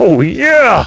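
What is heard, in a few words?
"oh yeah", male; Splashdown; XBOX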